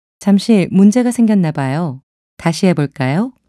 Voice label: timeout error, Korean; Samsung Galaxy S8

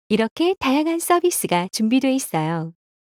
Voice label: samsung account, ko; Samsung Galaxy S10; SuwScriptPlayer

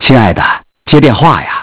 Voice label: Man Voice; Innostream INNO 55

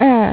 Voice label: "3" female mandarin

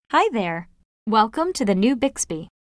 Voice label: onboarding provision greeting en us; Samsung Galaxy S10; Bixby

